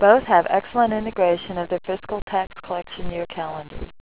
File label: woman